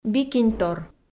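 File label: "бикиньтор", Komi, female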